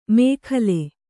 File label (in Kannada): "ಮೇಖಲೆ", Kannada